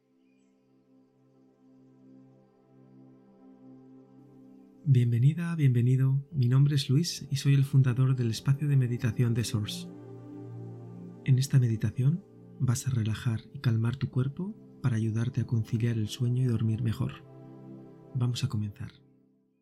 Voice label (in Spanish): Meditacion dormir mejor